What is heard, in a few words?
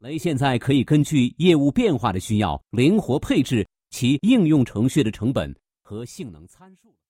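Mandarin Chinese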